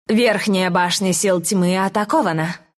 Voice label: announcer twr attack dire top, ru; Dlc lina announcer; Dota 2